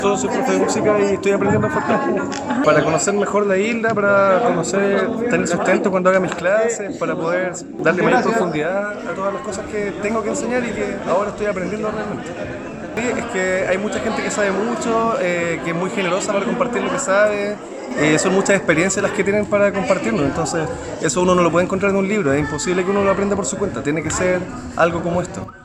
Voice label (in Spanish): Cuña; Escuela Tradicional